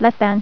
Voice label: "Less Than", female voice; TalkingKeys